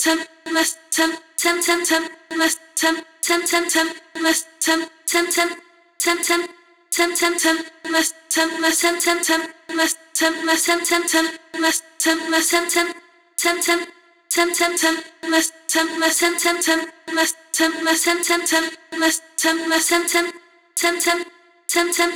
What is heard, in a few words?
Ponto Female